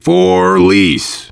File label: for lease, voice, biker; Left 4 Dead 2; left4dead2 dlc3; survivor